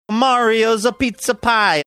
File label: saying pizza pie faux italian; mariosa